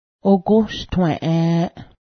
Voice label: moustache, Cayuga; ogǫhstwę́ˀęˀ

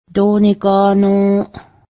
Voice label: what is the price, cayuga; doingánǫ:ˀ

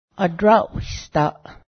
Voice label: bat, Cayuga; adraˀwi̱hsdaˀ; bat (animal)

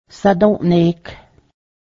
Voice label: move yourself away, Cayuga; sadǫ́ˀne:k

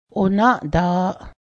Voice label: bread, Cayuga; onáˀda:ˀ

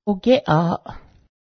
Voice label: cloth, Cayuga; ogyé:ˀaˀ

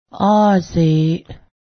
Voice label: new, Cayuga

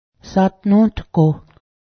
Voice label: climb out, Cayuga; satnóda̱hgoh

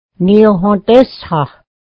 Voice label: "strawberry", mohawk

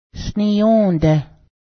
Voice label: hang, Cayuga; sniyǫ́dęh; hang (v.)

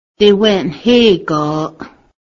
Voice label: ball deer, Cayuga; dewęˀnhé:ga:ˀ

